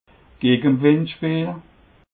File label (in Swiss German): "gege wind speele", alsace